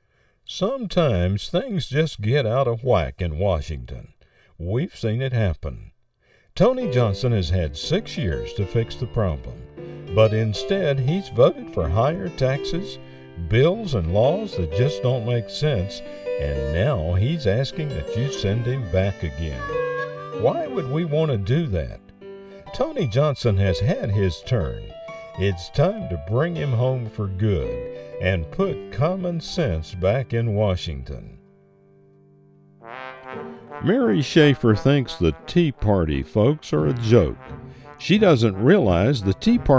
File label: political negative